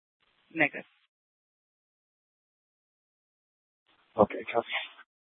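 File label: Police Department Dispatch, scanner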